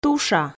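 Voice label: "туша", Komi, female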